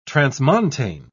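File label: "transmontane", British English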